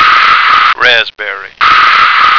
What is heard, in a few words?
"raspberry", American